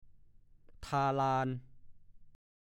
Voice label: "ทาลาน", ภาษาโคราช; การเอาขี้วัว หรือขี้ควายผสมกับน้ำทาพื้นดิน เพื่อเตรียมสถานที่นวดข้าว

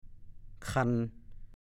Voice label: "คัน", ภาษาโคราช

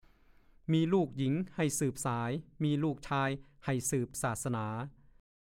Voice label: มีลูกหญิงให่สืบสาย มีลูกชายให่สืบศาสนา, ภาษาโคราช

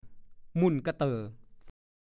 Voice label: "มุ่นกะเต่อะ", ภาษาโคราช; แหลกละเอียดจนไม่มีชิ้นดี

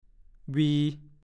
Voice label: "วี", ภาษาโคราช; พัด โบก

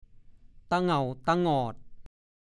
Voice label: ตะเง่าตะงอด, ภาษาโคราช; หน้าเง้าหน้างอ ออดอ้อน

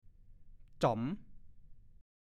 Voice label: "จ่อม", ภาษาโคราช

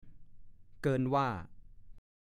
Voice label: "เกินว่า", ภาษาโคราช; เหลือเกิน เกินควร เต็มที

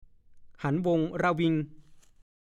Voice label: "หันใจ", ภาษาโคราช; หายใจ

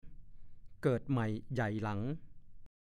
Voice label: "เกิดใหม่ใหญ่หลัง", ภาษาโคราช; (สำนวน) เด็กรุ่นหลัง เกิดทีหลัง

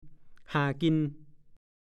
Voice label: "ห่ากิน", ภาษาโคราช; คำด่า (ไม่สุภาพ)

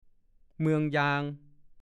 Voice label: "เมื่องเซื่อง", ภาษาโคราช; ขี้เหร่

